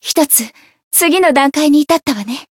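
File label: Voice growth Japanese; anaberu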